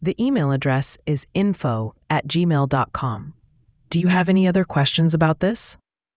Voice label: question english